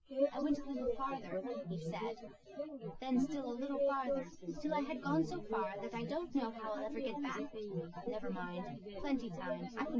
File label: female synthconv 130ms 5cm; SiSEC08; SiSEC underdetermined